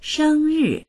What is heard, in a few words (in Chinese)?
"sheng1ri4", voices; 生日; 誕生日